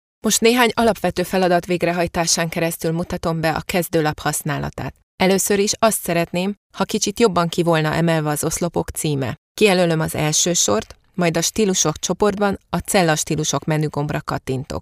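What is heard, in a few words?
Hungarian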